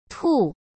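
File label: "兔", Mandarin Chinese; chinese flashcards; hsk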